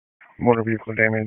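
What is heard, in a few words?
Dispatch 1, P25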